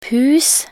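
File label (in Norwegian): "pus", Norwegian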